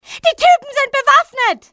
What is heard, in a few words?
those men, civ fmale; XBOX